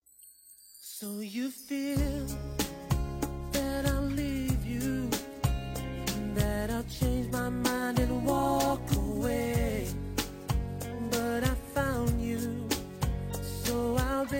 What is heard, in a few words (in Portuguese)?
carinho fem, Telemensagem Carinho – Voz Feminina